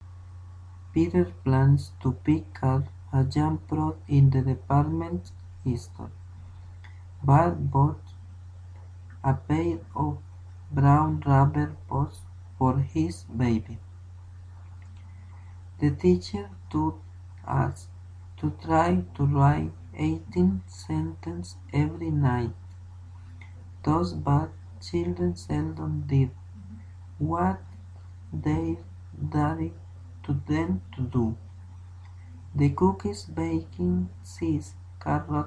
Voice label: American English

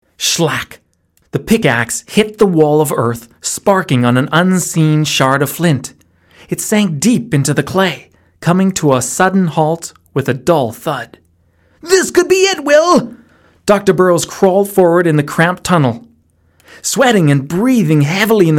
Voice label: audiobook

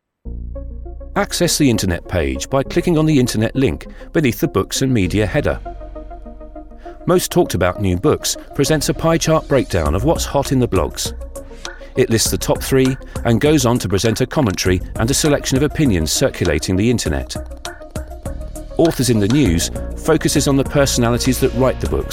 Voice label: corporate online navigation

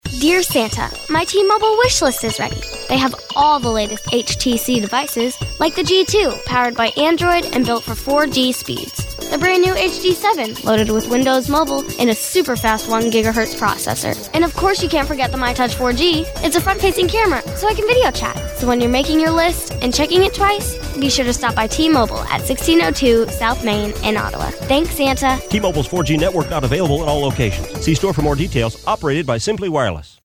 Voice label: commercial; tmobile

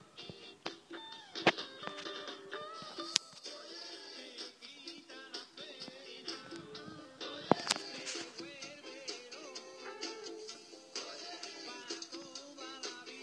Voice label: Spanish radio via DRM on SW radio

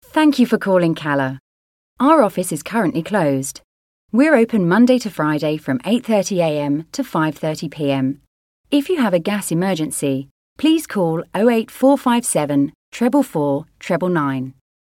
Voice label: ivr

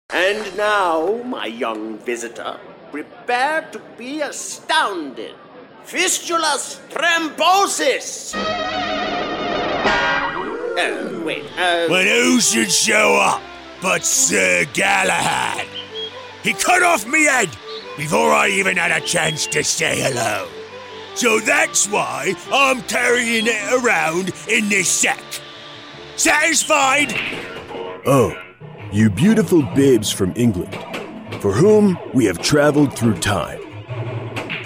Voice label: character voice

